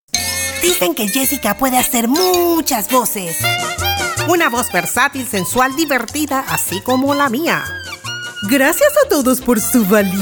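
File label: character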